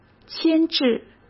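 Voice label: "牵制", Mandarin Chinese; hsk; chinese-flashcards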